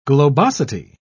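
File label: "globosity", English, British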